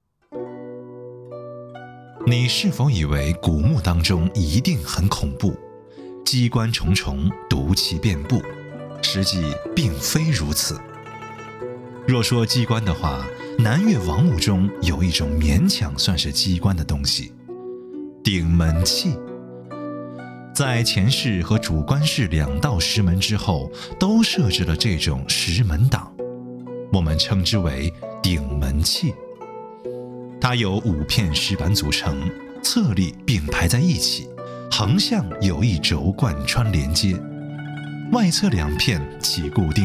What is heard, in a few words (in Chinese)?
男 旁白 博物馆讲解 历史